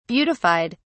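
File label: "beautified", British English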